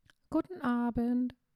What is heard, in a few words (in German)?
"Guten Abend", German